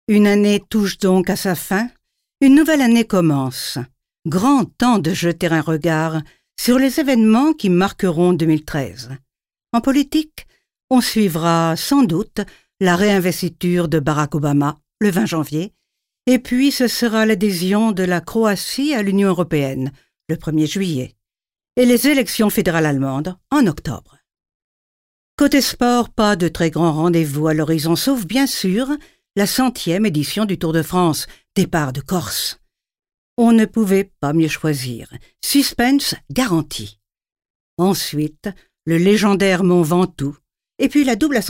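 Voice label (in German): French Schauspiel Neutral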